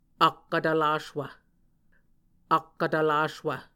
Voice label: "akkvtvlaswv", Muscogee; Mvskoke Words with Repeated Consonants; Martin, Jack B., and Margaret McKane Mauldin. 2023. A Dictionary of Creek/Muskogee